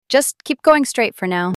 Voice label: Female Keep Going Straight

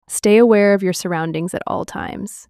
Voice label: Female Stay Aware

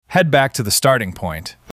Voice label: Male Starting Point

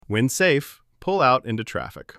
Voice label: Male Pull Out

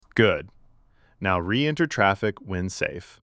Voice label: Male Reenter Traffic

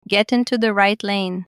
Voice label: Female Get Into Right Lane

